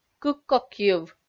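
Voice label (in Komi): "кыккокйыв", Komi, female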